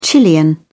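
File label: "chilean", British English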